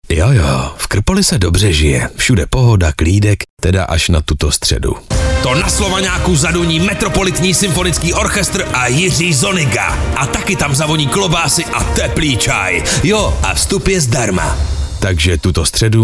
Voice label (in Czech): radiovy spot